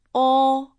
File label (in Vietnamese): "o", tieng trung; nguyên âm